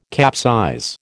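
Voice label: "capsize", American English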